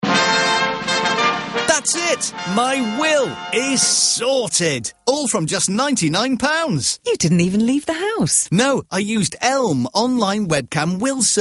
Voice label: Radio Ad; ELM Legal Services; Heart